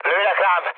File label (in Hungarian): aircraft damaged mood med, hun; War Thunder